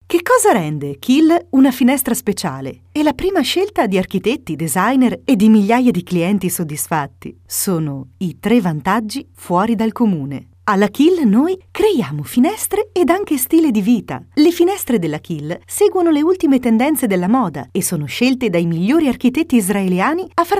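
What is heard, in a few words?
Italian narration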